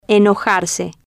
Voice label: "enojarse", Spanish